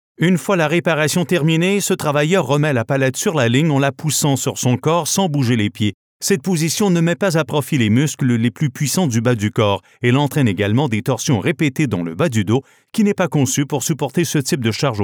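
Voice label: Sample Canadian French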